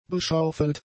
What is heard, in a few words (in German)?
"beschaufelt", German; adjectives